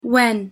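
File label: pronunciation "when", English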